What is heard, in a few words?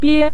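"bie" neutral tone, Mandarin Chinese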